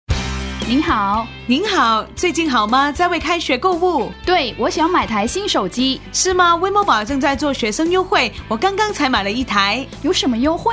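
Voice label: back to school, Mandarin, Chinese; WIND Mobile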